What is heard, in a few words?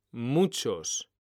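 "muchos", Spanish; mekanta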